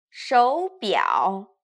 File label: "shoubiao", Chinese